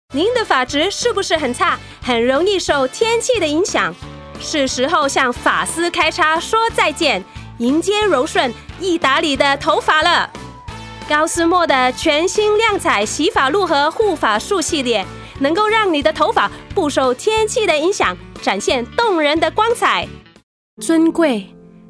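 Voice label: Mandarin, TV commercial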